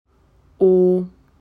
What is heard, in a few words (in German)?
buchstabe o, deutsches alphabet